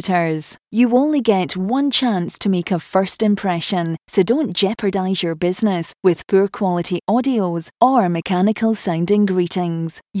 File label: female voice